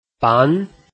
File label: "ban2", Chinese